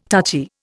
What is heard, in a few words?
"touchy", Indian